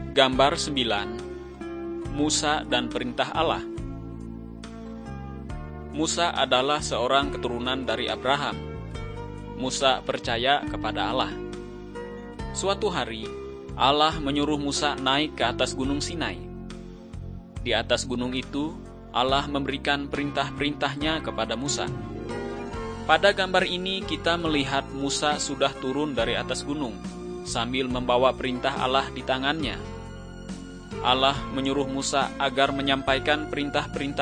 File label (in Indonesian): kabar baik good news bahasa indonesia